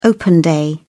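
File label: "open day", British English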